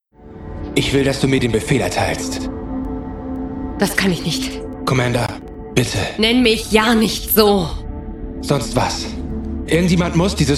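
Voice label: german video games, Male; German Voice Actor; born in Schleswig-Holstein in 1987 and live near Hamburg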